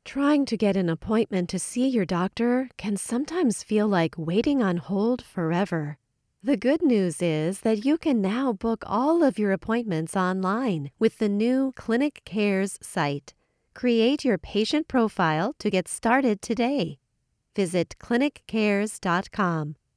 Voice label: Explainer Medical

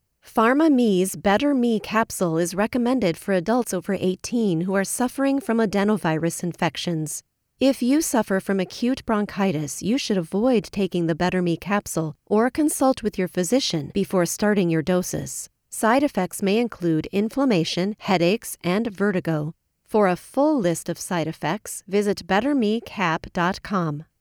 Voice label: Disclaimer Medical

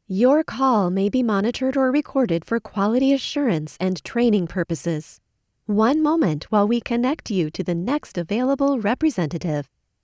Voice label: Announce General Voicemail

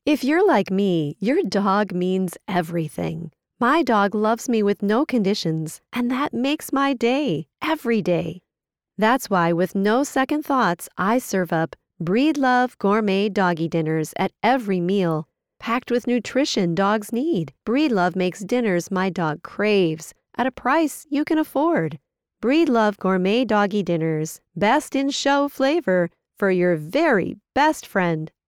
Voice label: Ad Pet food

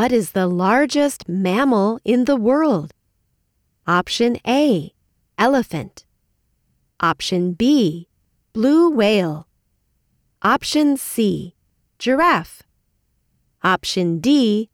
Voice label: E-learning Animal Quiz

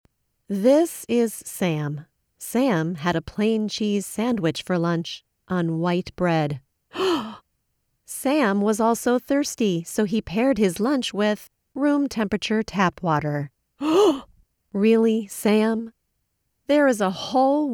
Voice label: Ad, Food Company

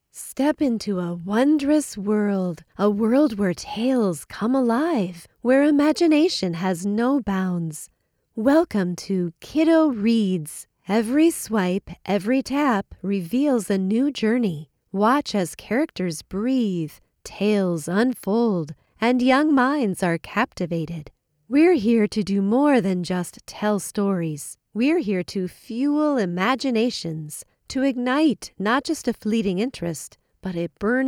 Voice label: Ad Kids' Reading App

